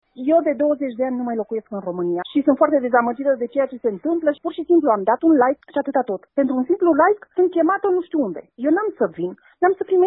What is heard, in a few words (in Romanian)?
voce femeie